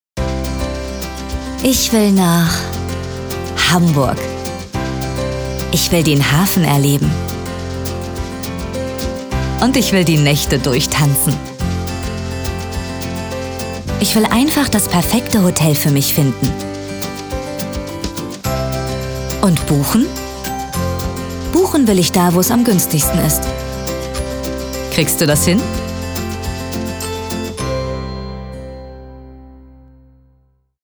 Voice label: spot reise fröhlich

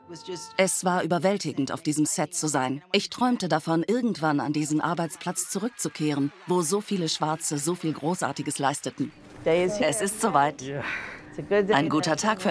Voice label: Doku

